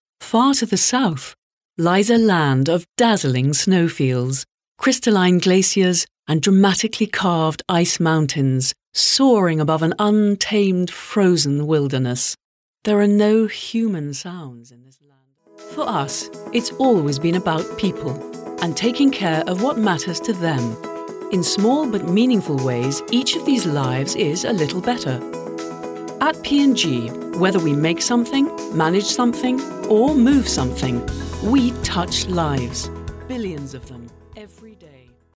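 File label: Female English ivr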